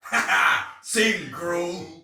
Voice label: See Ally Hit, Soldier Male; Dialog; Barks; Battle; Sinecure